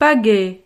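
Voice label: "pagaie", French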